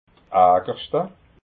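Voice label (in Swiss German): "agerschta", Alsatian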